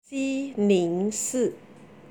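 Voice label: "xi ning", Chinese; 西宁市; Xī níng shì; 青海省; Qīng hǎi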